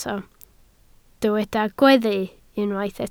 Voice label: "gweddu", Welsh

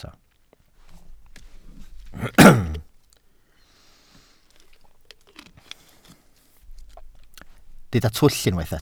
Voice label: "twll", Welsh

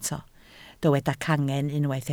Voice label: "cangen", Welsh

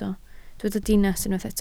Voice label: "dinas", Welsh

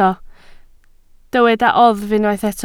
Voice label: "oddf", Welsh

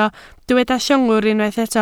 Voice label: "llongwr", Welsh

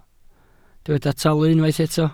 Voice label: "talu", Welsh

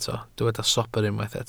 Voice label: "sobr", Welsh

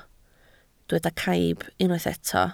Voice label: "caib", Welsh

